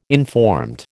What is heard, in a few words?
"informed", American